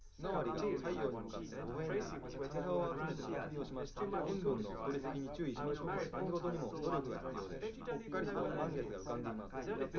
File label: male liverec mix